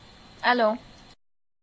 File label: Phone hello